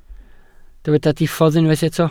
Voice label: "diffodd", Welsh

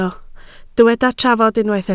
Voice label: "trafod", Welsh

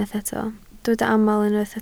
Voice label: "aml", Welsh

